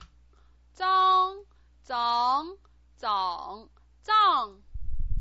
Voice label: "zang", pinyin

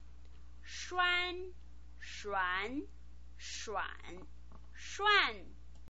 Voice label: "shuan", pinyin

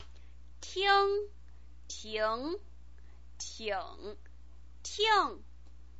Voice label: "ting", pinyin

